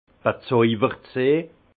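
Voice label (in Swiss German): "bezojbert", Alsace Haut Rhin; vin; séduit être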